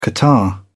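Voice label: "catarrh", British English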